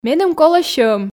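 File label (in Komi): меным колӧ сьӧм, Komi, female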